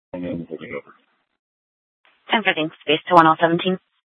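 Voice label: Police Department North Dispatch, scanner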